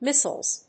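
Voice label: "missiles", English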